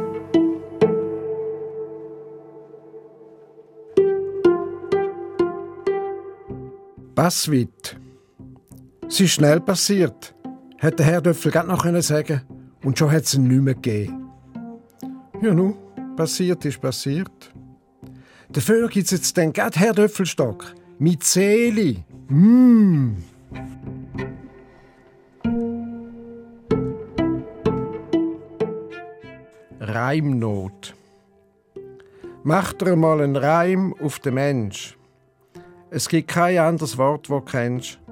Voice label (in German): Dini Mundart